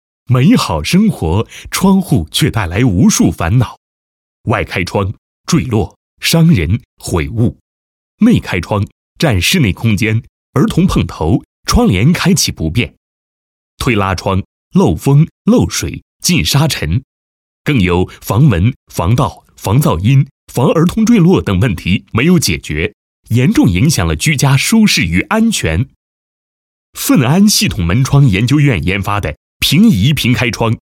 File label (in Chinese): 男 产品宣传简介 宣传片; 平移平开窗